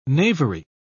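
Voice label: "knavery", British English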